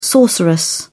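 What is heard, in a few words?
"sorceress", British English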